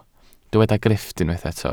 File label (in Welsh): "grifft", Welsh